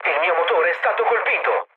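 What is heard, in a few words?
Italian damaged engine mood low, speech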